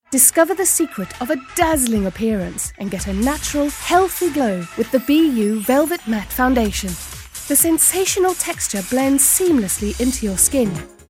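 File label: British commercial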